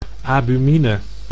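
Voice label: "abumine", Dutch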